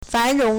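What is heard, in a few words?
"fánróng", Chinese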